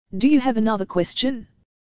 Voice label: dialog